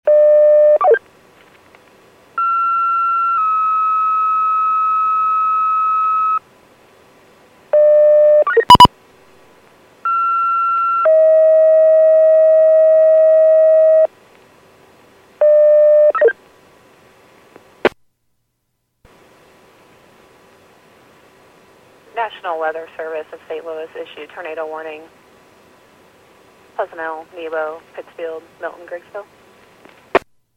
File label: PAGING